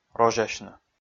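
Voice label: "розясьны", Komi, male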